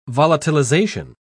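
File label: "volatilization", British English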